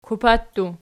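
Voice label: "kupa", plural, Archi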